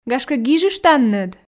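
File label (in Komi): "гашкӧ гижыштанныд", Komi, female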